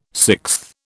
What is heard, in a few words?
"sixth", British